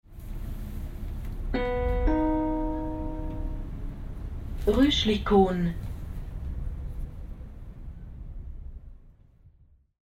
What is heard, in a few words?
train announcement